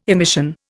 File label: "emission", Indian